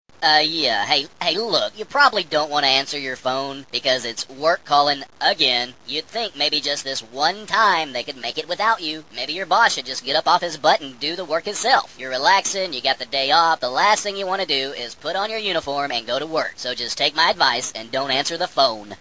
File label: During Work Call, English Ringtone